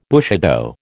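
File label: "bushido", American English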